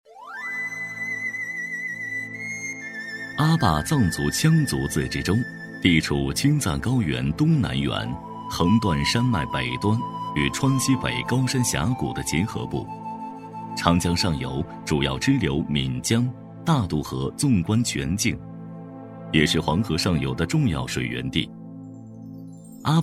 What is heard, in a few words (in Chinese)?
男 专题配音 自然讲述; 阿坝州; 厚重有力 大气浑厚